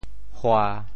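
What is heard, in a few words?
"hua1", Teochew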